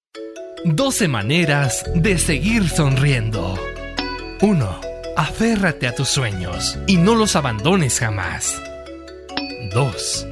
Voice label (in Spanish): locutor emotivo; 12 maneras